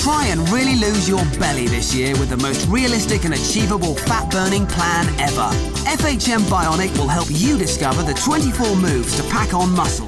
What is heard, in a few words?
Commercial